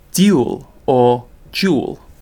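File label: "dual", British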